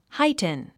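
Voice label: "heighten", English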